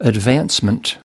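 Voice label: "advancement", US English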